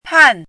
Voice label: "pàn", hanzi